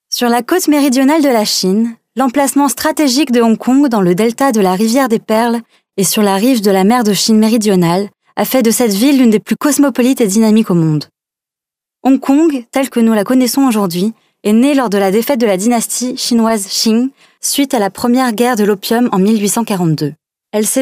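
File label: French female corporate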